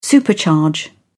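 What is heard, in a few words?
"supercharge", English, uk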